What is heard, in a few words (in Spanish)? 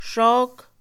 mojado, Mixe, Mujer; Percepción; INALI